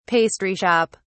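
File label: "pastry shop", British English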